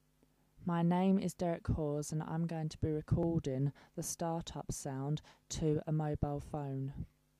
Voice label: other mobile phone voice

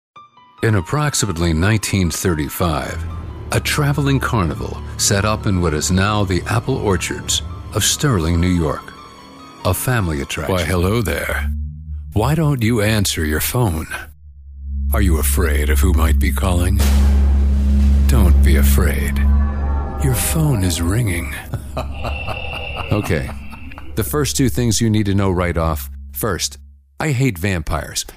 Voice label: edgy eerie voice over

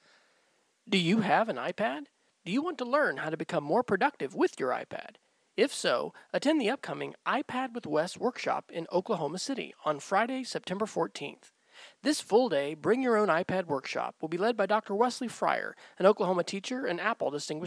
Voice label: radio spot; iPad

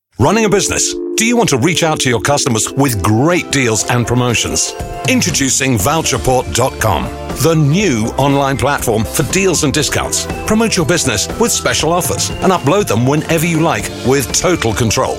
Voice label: radio commercial

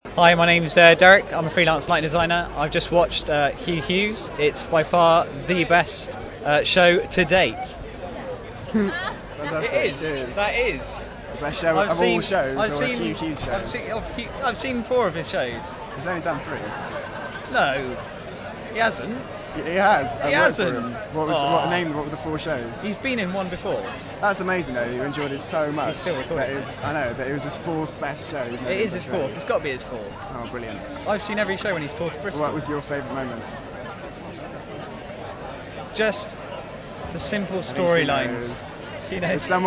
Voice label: vox pop; ed fringe

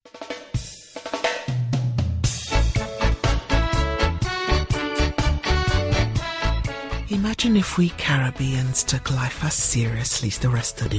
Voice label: voiceover advert

